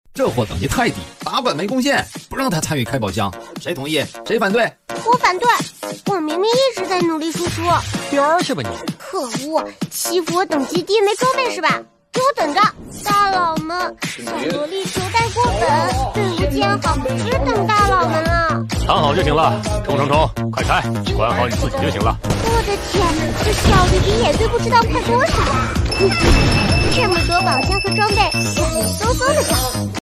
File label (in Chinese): 男, 短视频游戏广告-游戏男一; 暴富